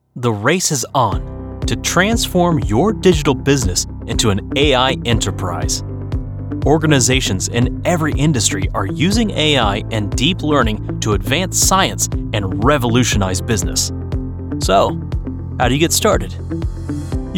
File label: Explainer